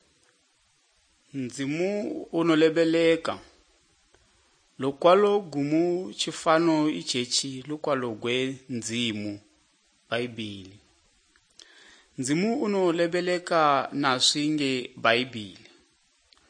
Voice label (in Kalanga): good news kalanga lilima, drama; bible